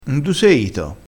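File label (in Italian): "n du", dialettale crespinese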